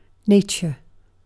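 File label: "nature", British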